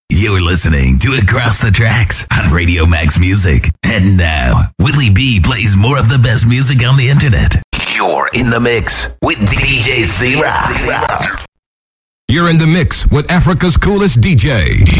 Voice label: jingles and promos dj imaging; foreign ascent; 99for30; imaging demo